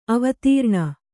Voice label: "ಅವಧಾರಣೆ", kannada

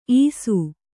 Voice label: "ಈಸು", Kannada

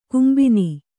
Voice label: "ಕೆಂಬೊನ್", Kannada

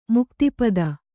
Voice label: "ಮುಕ್ತಿಪದ", Kannada

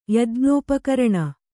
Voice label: "ಯಜ್ಞೋಪಕರಣ", Kannada

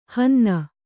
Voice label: "ಹಿನ್ನೆ", Kannada

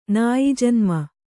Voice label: "ನಾಯಿಜನ್ಮ", kannada

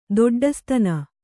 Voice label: "ದೊಡ್ಡಸ್ತನ", kannada

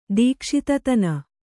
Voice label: "ದೀಕ್ಷಿತತನ", Kannada

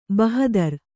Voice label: "ಬಹದರ್", Kannada

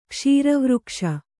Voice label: "ಕ್ಷೀರವೃಕ್ಷ", Kannada

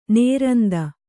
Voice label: "ನಿರಂತ", Kannada

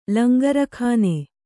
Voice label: "ಲಂಗರಖಾನೆ", Kannada

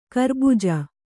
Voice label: "ಖರಬುಜ", Kannada

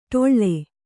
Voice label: "ಡೊಳ್ಳ", Kannada

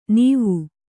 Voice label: "ನೀವು", Kannada

